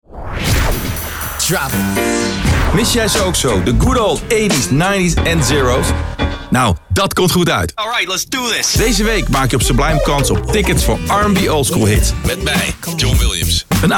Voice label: PROMO RnB Oldskool